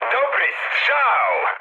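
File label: player killed enemy mood high, speech Polish; War Thunder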